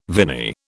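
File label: "viny", British English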